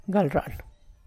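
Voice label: tlacochahuaya, Zapotec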